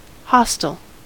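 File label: "hostile", American English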